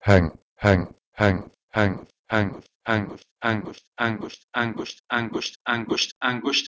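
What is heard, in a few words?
Proto-Indo-European h2eng to Persian angusht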